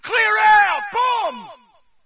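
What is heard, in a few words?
British grenade; player; source engine; dod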